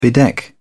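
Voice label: "bedeck", English, British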